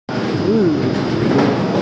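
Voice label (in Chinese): "yu4 mi3" Mandarin Chinese, female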